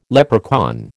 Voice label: "leprechaun", American